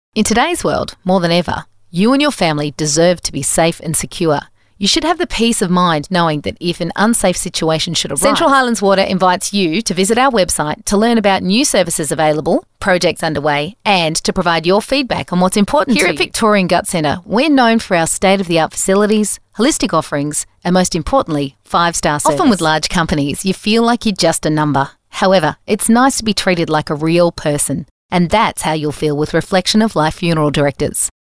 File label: Natural